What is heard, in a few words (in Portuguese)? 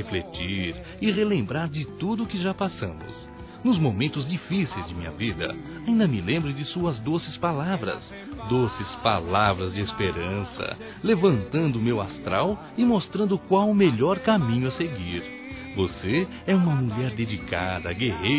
ANIV. ROMANTICO M